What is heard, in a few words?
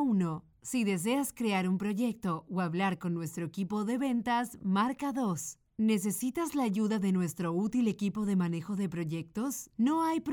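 spanish latin american interactive voice response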